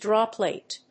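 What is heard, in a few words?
"Draw plate", English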